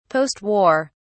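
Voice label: "post war", British English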